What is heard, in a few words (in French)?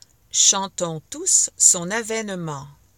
Chantons tous son avènement, French Pronunciation; le divin enfant